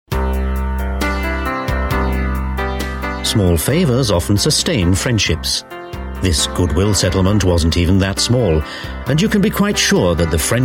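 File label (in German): UK English Werbung Freundlich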